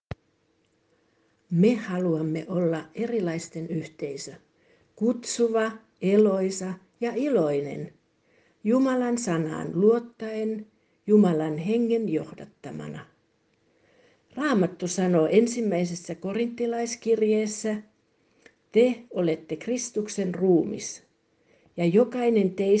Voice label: Finnisch; Wir möchten eine Gemeinschaft

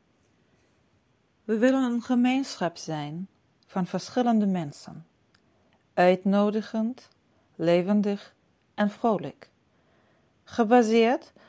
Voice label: Niederlaendisch; Wir möchten eine Gemeinschaft

